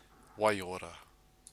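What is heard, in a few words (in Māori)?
"waiora", Māori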